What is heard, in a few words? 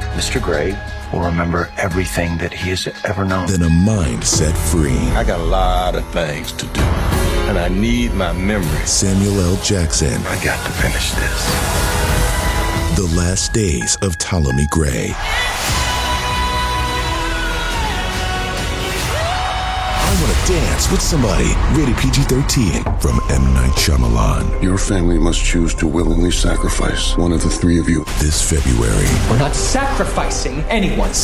trailer men